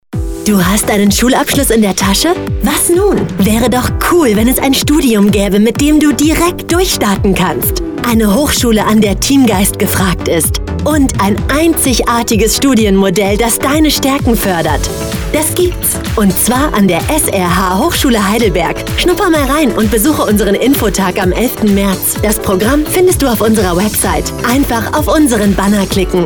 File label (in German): Radio Spot; Hochschule Heidelberg